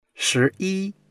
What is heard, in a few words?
"shi2 yi1", Chinese